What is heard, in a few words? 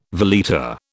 "veleta", British